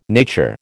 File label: "nature", American